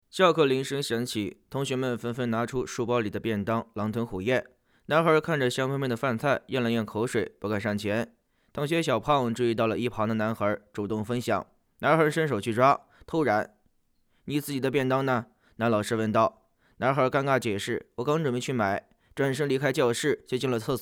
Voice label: 电影解说

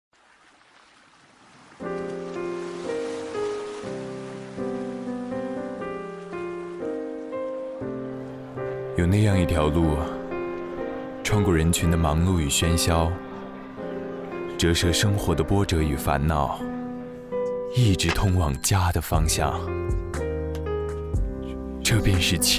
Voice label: 中秋片花

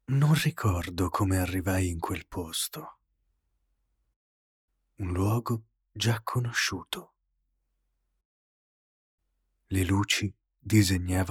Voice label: 意大利语配音

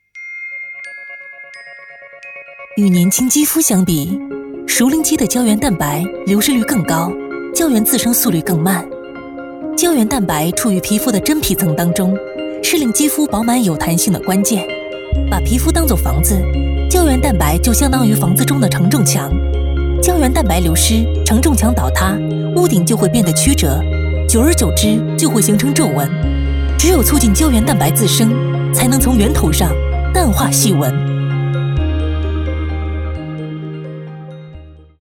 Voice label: 肌肤广告

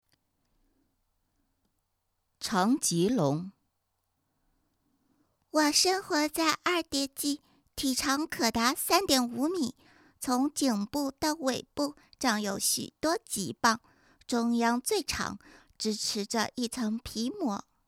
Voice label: 汉语儿童绘本旁白女童

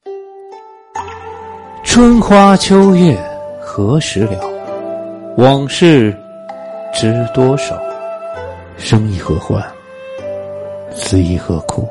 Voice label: 古风 江湖 混剪

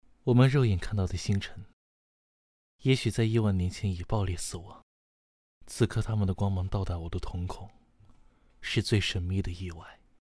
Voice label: 华丽的声音

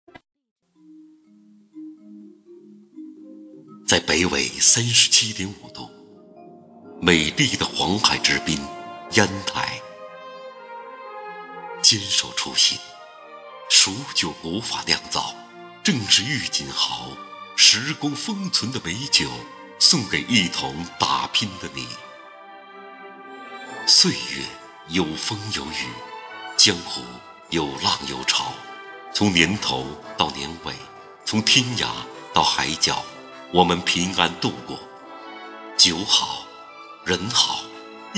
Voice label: 酒大气沉稳; 郁锦豪酒

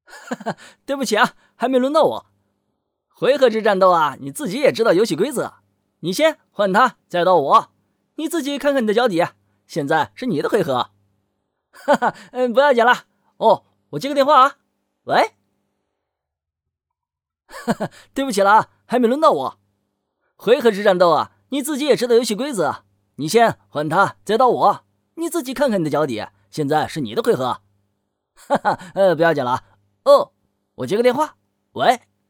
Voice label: 剧情配音; 圣光与荣耀

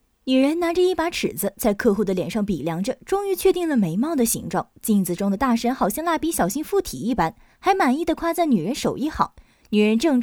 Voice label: 电影解说

